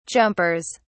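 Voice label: "jumpers", British English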